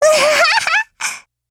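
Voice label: Japanese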